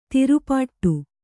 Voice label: "ತಿರುಪಾಟ್ಟು", kannada

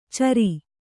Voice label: "ಚರ", Kannada

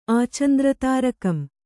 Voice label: "ಆಚಂದ್ರತಾರಕಂ", Kannada

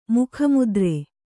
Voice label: "ಮುಖಮುದ್ರೆ", Kannada; mukha mudre; noun; = ಮುಖಭಾವ

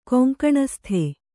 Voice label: "ಕೊಂಕಣಸ್ಥ", kannada

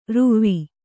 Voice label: "ರುವಿ", Kannada

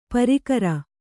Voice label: "ಪ್ರಾಕಾರ", Kannada

